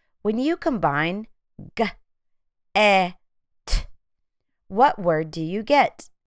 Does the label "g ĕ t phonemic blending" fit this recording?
Yes